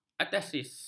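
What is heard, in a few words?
"ateis", Malay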